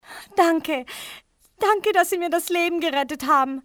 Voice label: thank, civ fmale; Soldier Of Fortune II Double Helix; XBOX

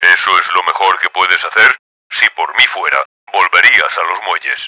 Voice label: angry, Spanish; kramer; Battle Engine Aquila; XBOX

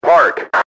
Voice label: park, enemy english team orders; XBOX

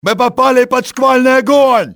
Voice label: under fire, russian soldier; XBOX

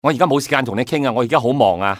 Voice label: "no time", male; XBOX; civ

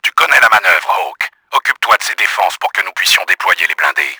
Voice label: clear defences, french; Battle Engine Aquila; XBOX

